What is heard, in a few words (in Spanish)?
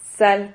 "xal", Silabas